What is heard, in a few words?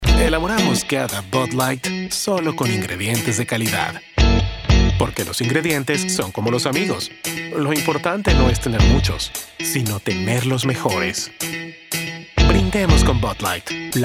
Spanish